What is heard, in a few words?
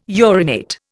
"urinate", Indian